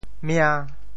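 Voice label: "mia~5", Teochew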